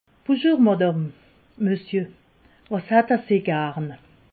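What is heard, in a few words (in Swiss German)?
"buschur", Alsatian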